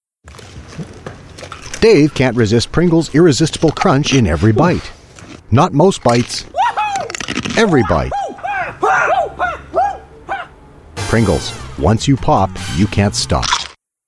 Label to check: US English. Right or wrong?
Right